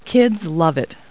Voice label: people, kid